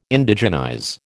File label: "Indigenize", American